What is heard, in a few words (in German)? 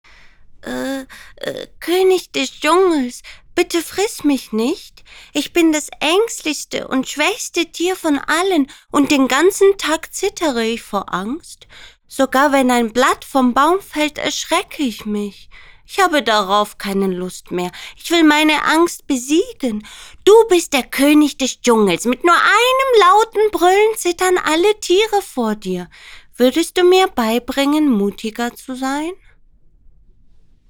Synchron, sprecherin